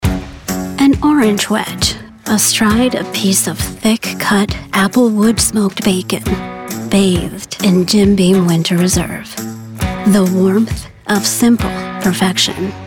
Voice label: commercial voiceover luxury gritty grounded; Jim Beam